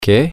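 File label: what, spanish, female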